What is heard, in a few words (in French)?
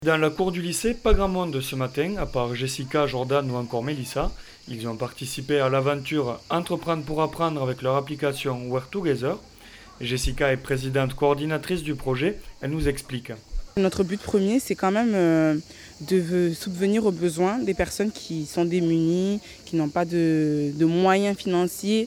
reportage lycee; lycee perier